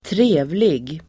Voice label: "trevlig", Swedish

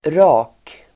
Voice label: "rak", Swedish

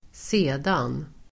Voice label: "sedan", Swedish

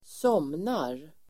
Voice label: "somnar", Swedish

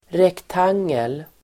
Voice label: "rektangel", Swedish

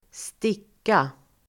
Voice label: "sticka", Swedish

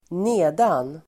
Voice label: "nedan", Swedish

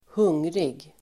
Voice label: "hungrig", Swedish

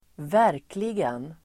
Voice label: "verkligen", Swedish

